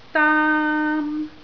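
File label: "daam1", Cantonese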